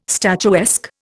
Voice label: "statuesque", Indian

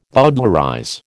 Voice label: "bowdlerize", American

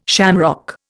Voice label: "shamrock", Indian

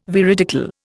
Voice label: "veridical", Indian

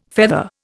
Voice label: "feather", Indian

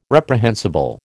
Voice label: "reprehensible", American

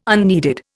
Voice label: "unneeded", Indian

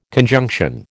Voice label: "conjunction", American

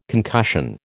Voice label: "concussion", American

